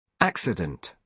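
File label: "accident", British English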